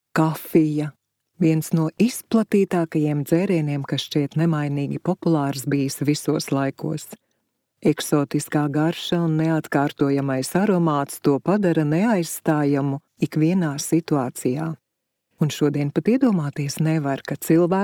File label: female latvian voice over upbeat